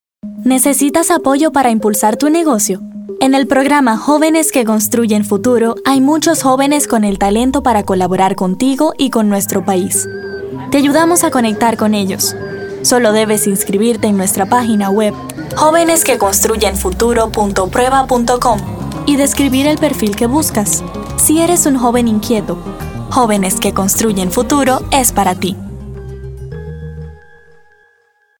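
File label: Latin American Spanish Commercial; voice demos; GoLocalise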